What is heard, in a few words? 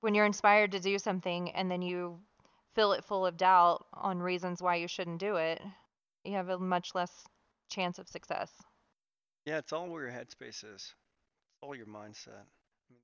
commercial